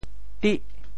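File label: "tih8", Teochew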